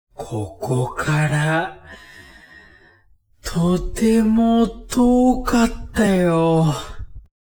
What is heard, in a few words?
man